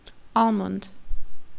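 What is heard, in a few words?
"almond", Turkish, female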